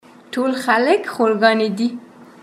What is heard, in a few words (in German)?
paschtu